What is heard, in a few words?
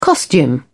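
"costume", British English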